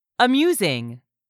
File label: "amusing", English; 3000 words